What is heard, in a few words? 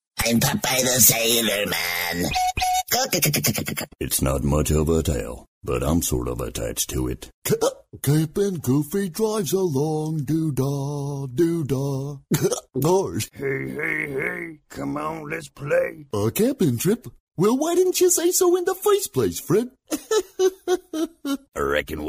Character Imitation